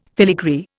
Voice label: "filigree", Indian